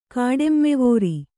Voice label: "ಕಾಡೆಮ್ಮೆವೋರಿ", kannada